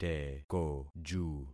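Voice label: "tekoju", concatenated syllables; Affixing experiment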